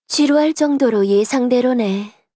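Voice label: Victory, voice, Korean; Eve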